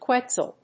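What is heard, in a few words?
"quetzal", English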